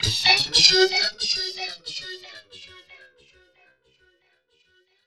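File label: effects talk, Funky Vocals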